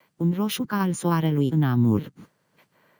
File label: synth